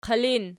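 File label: "qilin" nominative, Archi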